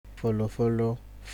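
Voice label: "follow follow", Nigerian Pidgin, male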